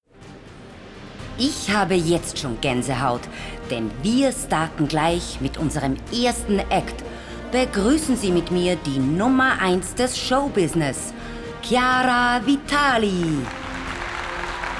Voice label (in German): Moderation